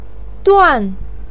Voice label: "duan4", Chinese pinyin

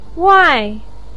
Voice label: "wai4", Chinese pinyin

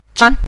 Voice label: "zhan", Mandarin; lěng zhan

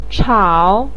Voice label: "chao3", Chinese pinyin

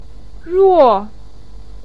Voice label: "ruo4", Chinese pinyin; 口; kǒu ruò

